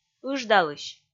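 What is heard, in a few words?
"ыждалысь", Komi, female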